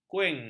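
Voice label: "kuing", Malay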